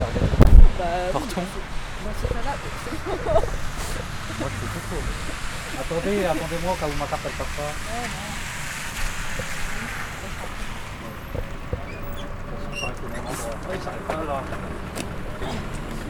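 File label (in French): discute, asmr; place leclerc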